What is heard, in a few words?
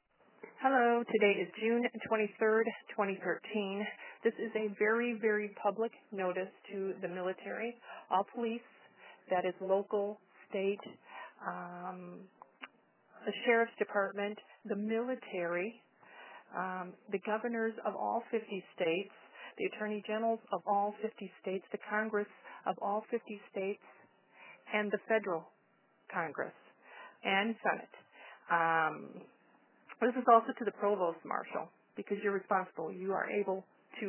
voice message